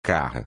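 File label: "Caja", Portuguese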